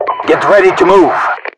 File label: ready to move, RU; mpvoice